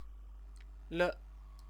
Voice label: "le", Chinese; [marker] marker